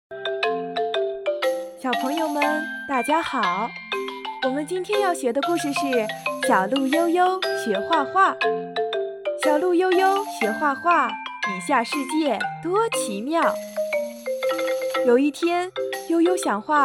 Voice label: 儿童读物

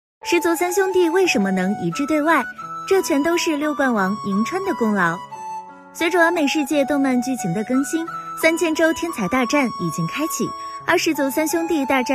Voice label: 动漫解说音

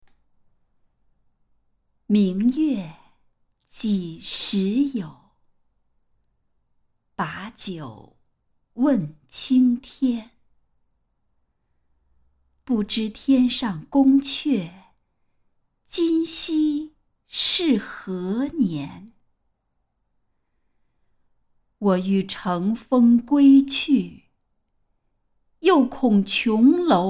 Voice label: 古诗词 配音; 微雨

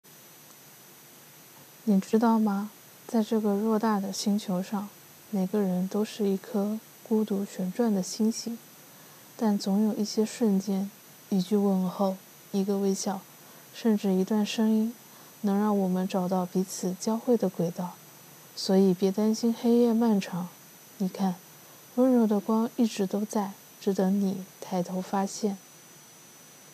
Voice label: 中文

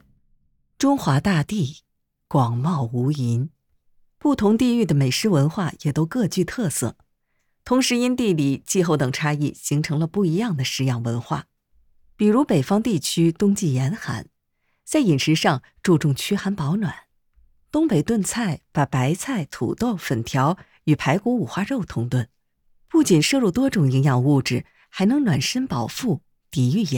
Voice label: 广告; 劲酒

